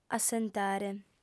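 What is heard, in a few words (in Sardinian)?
"assentare", female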